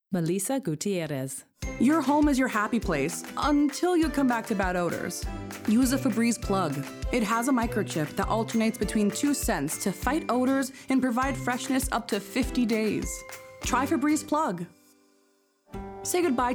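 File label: commercial VO; Voice Over Reel; 2025 mfa acting showcase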